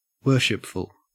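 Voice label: "worshipful", Australian English; pronunciations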